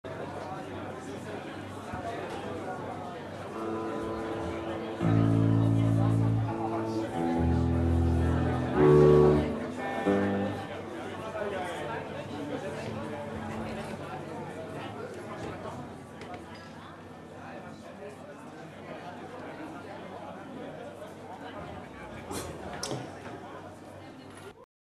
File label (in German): ansage